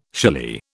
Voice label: "shily", British

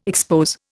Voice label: "expose", Indian

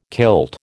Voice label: "kilt", American

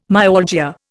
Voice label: "myalgia", Indian

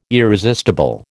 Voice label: "irresistible", American

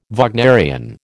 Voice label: "Wagnerian", American

